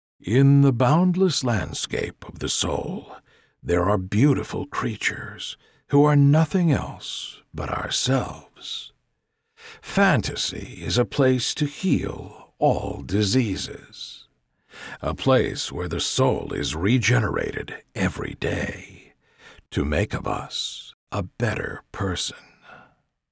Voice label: Narration Introspection Deep

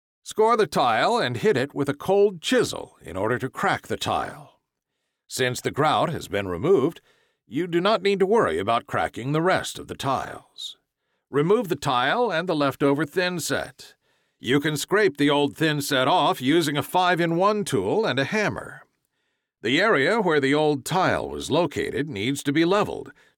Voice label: Installation Repair Narration Tile

